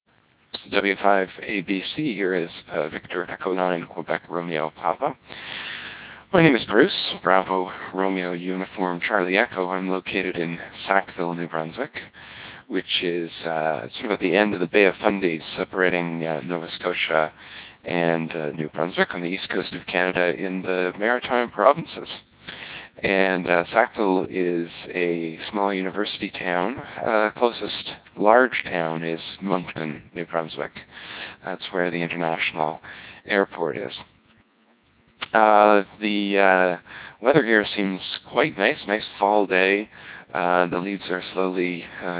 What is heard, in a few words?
codec2 1200